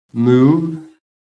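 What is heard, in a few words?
"move", English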